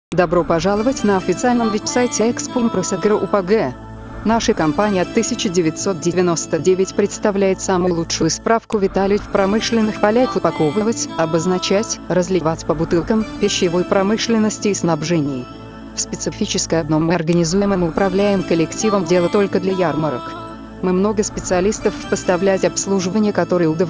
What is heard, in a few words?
MESSAGE RUS